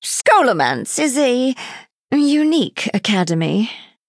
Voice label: Female High Elf Emote